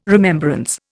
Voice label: "remembrance", Indian